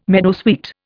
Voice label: "meadowsweet", Indian